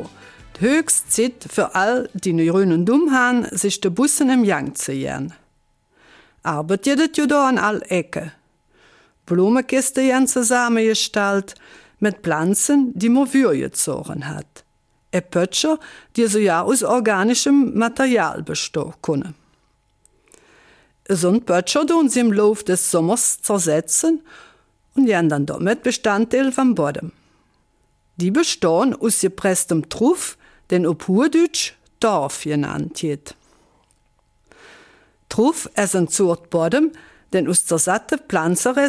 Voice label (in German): Eifeler Mundart; Torfstechen in Sourbrodt